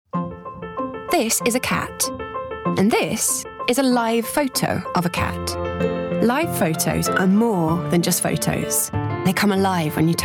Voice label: Commercial